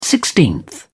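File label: "sixteenth", British English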